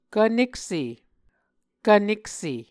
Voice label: "kvnekse", Muscogee

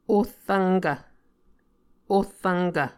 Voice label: "ohrvnkv", Muscogee

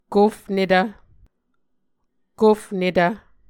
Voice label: "kofnetv", Muscogee

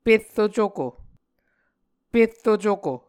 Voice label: "perro cuko", Muscogee

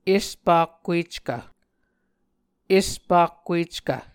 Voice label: "espakkueckv", Muscogee; Mvskoke Words with Repeated Consonants; A Dictionary of Creek/Muskogee; SIL International

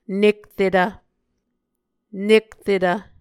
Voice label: "nekretv", Muscogee

